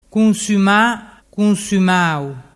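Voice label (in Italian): "cunsumamau", Vernazzese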